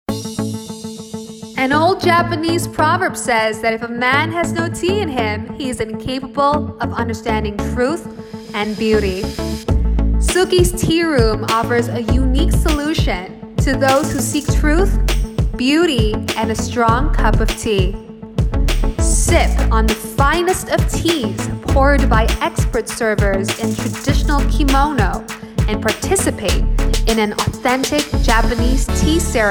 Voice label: ENGLISH FORMAL